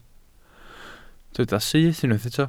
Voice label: "syth", Welsh